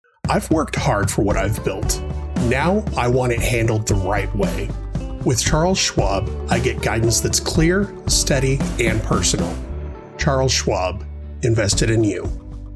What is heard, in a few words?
Commercial; Charles Schwab; Phoenix AZ; home studio